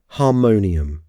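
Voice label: "harmonium", English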